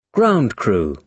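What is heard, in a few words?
"ground crew", British English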